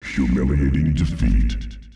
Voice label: "humiliating defeat", male

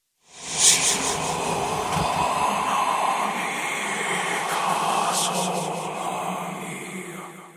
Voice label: egyptian creepy voice

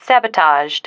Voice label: "sabotaged", female

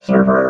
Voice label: "server", robot

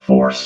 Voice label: "force", vox robot

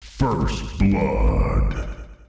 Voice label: "first blood", male

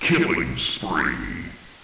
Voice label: "killing spree", male

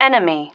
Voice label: "enemy", female

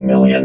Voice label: "million", vox robot

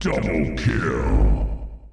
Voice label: "double kill", male

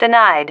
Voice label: "denied", female

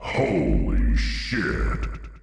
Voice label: "holy shit", male

